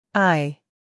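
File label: "i", American English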